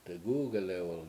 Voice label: "degUgelew1l" round, Washo